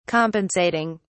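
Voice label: "compensating", British English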